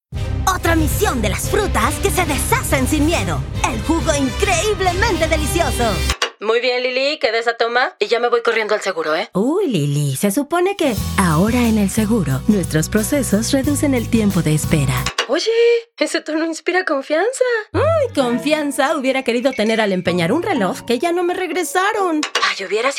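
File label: Mexican Spanish F Commercial, female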